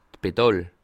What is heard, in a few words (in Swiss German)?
"pedal", Alsace